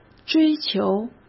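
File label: "追究", Mandarin Chinese; hsk; chinese flashcards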